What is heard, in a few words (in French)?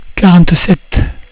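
"quarante-sept", French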